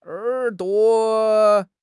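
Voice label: "er3 duo5", Mandarin Chinese; HSK3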